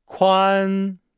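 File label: "kuan", Chinese; Nihao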